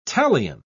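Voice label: "talion", British English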